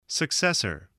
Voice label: "successor", voice spelling

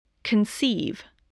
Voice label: "conceive", english

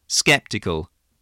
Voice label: "skeptical", voice, spelling

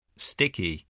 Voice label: "sticky", voice

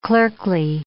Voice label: "clerkly", English

